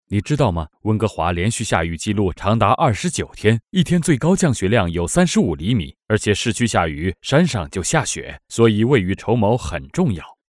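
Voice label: Chinese, male, fast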